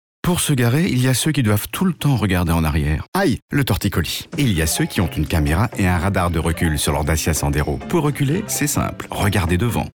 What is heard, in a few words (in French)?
Voix radio; Dacia